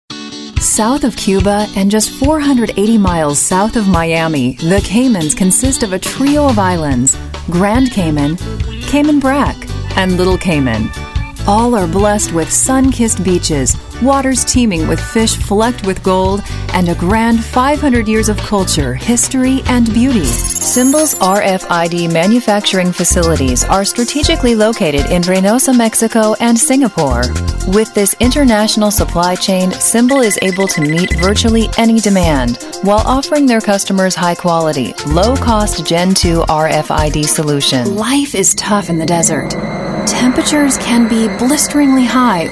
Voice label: narration